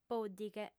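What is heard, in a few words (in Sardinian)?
"pòddighe", female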